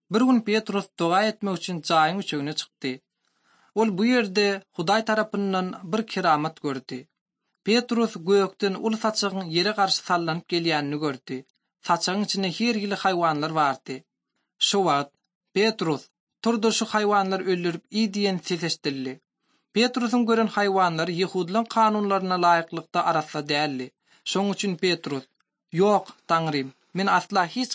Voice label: turkmen, bible translation drama; ot drama; turkmen institute for bible translation; acts of the holy spirit